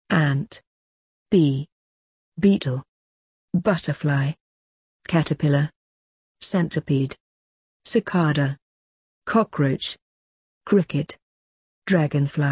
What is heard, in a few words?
uk; bugs